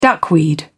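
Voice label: "duckweed", British English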